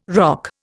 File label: "roque", Indian